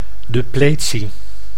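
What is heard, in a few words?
"depletie", Dutch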